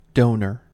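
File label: "donor", US English; pronunciations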